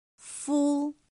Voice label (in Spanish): "fū", Chino